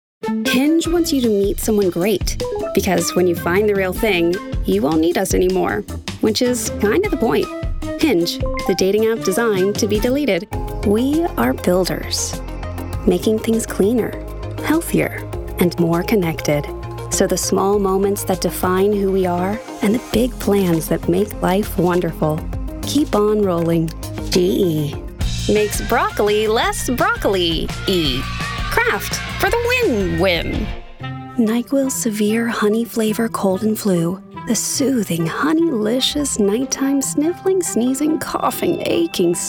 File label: Commercial